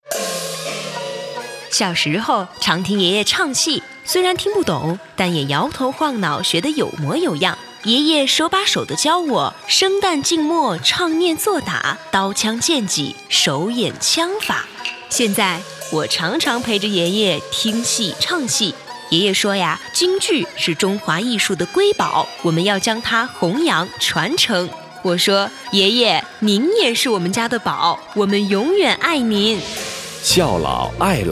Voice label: 孝老爱老公益广告

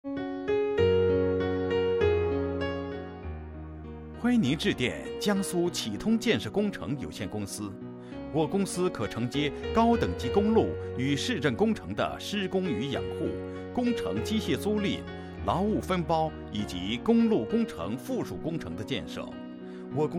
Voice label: 彩铃启通工程浑厚中音配音

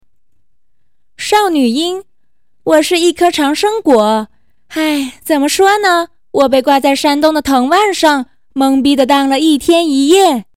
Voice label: 少女音

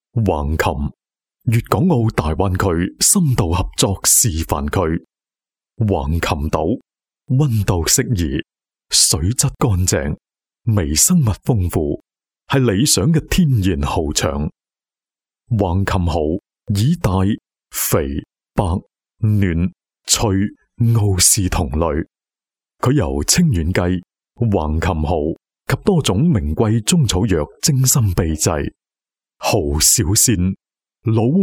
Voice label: 成熟广告; 蚝小仙

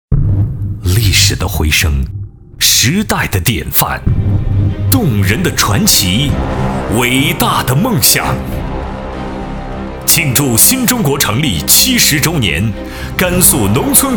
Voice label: 广播剧展播; 甘肃优秀广播剧

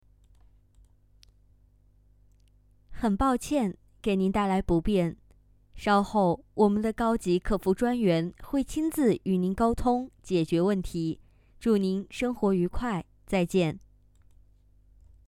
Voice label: 亲切 客服

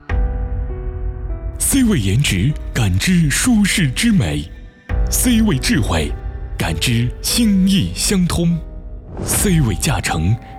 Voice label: 品牌广告, 真人商业配音

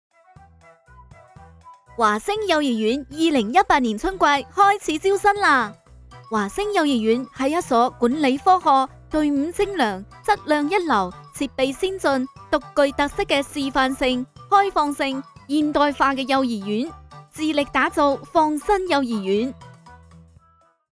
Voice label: 粤语 欢快活泼 广告

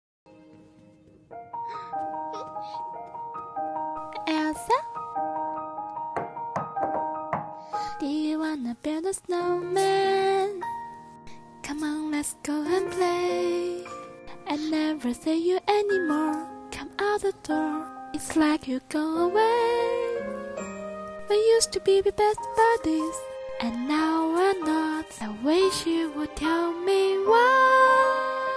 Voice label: 配音

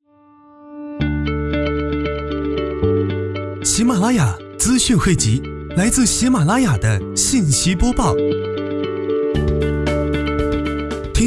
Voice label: 新闻广播 子栏目 宣; 长春

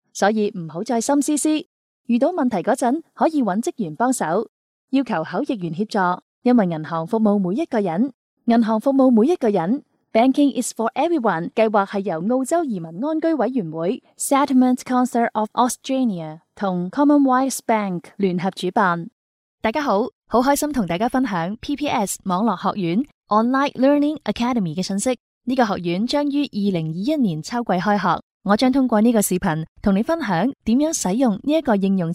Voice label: 粤语 金融银行; 鲸读全球

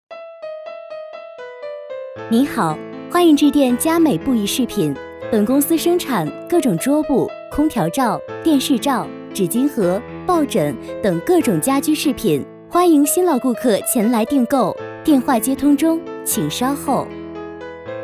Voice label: 彩铃; 佳美布艺

